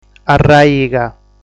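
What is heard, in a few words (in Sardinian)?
"Arraiga", Sardinian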